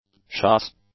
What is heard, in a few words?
"schuss", English; nouns